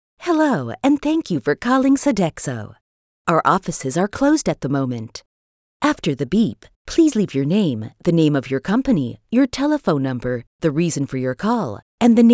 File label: Soft Friendly